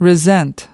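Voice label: "resent", English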